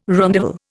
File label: "rondavel", Indian